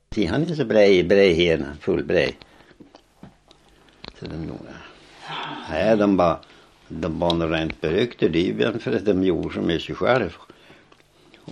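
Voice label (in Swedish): Lit Jämtland, dialekter; Folkminnen; Svenska sagor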